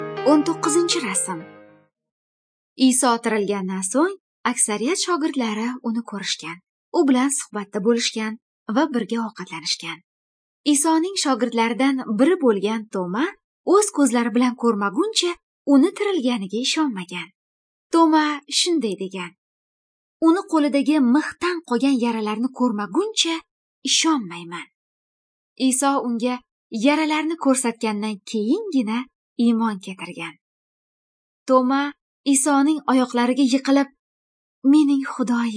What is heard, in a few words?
good news female uzbek northern